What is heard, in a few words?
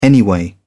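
"anyway", British English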